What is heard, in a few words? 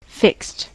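"fixed", US English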